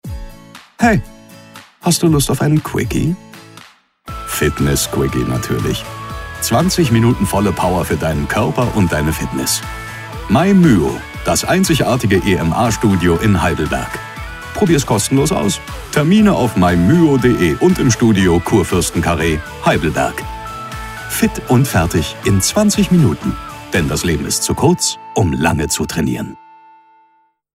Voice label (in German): telefonansagen sprecher